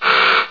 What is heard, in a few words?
grr; XDM; vox